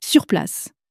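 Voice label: "sur place", French, female; flashcards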